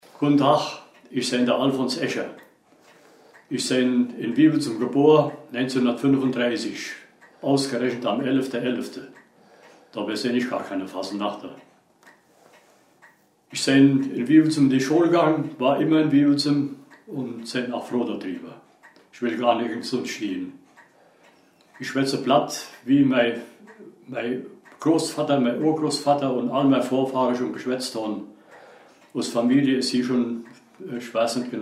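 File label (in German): vorstellung, O-Ton Hunsrück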